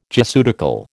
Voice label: "Jesuitical", American

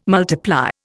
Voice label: "multiply", Indian

